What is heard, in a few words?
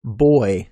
normal boy, American; American IPA phonic